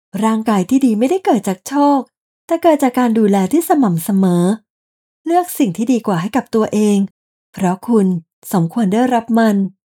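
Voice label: Ads Health, Radio Commercials, Female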